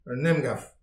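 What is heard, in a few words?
"un emgav", Breton; petit precis de prononciation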